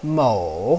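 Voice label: "mou3", Chinese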